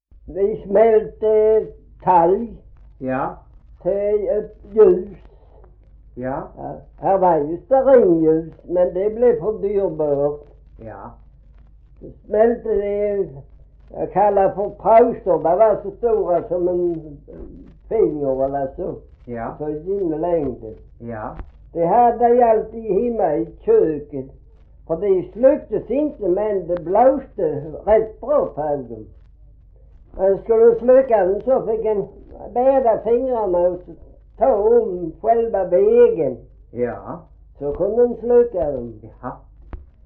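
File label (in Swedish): Gråmanstorp Skåne, Svenska sagor dialekter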